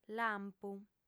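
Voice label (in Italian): "lampu", lingua sarda, fem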